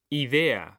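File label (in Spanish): "idea", Spanish, male; mekanta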